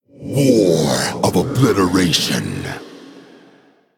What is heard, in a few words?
Male Spirit Play